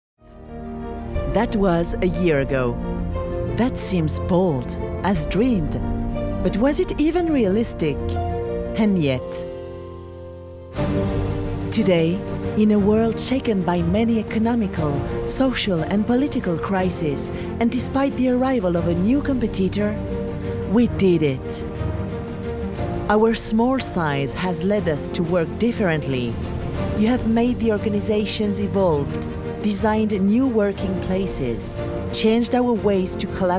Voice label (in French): ANGLAIS Fière admirative; EDGEWELL